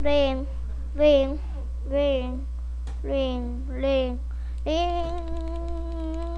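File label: "ring", spoken word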